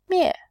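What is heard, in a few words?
"mie", Mandarin, tone 4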